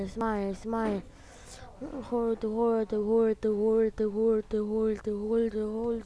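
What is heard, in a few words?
"hold", spoken word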